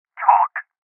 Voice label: Voices Interrogate Male